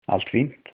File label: fine, icelandic; Allt fínt; Everything fine